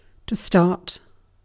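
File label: "start", British English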